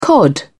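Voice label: "cod", English, British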